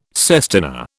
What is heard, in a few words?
"sestina", British